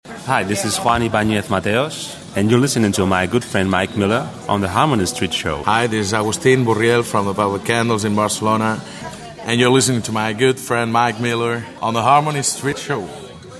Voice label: promo